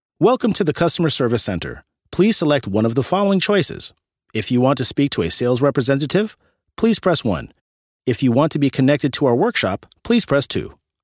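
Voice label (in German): American English, Freundlich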